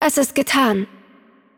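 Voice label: German game outro; VO; de DE; bloom minigame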